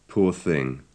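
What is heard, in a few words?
"poor thing", British English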